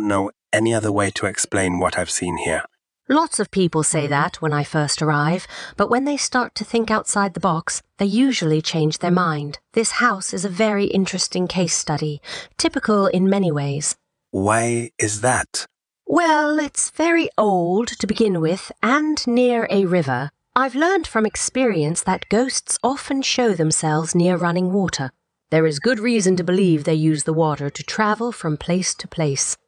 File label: Language School Dialogue